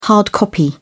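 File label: "hard copy", British English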